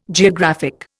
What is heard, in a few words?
"geographic", Indian